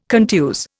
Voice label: "contuse", Indian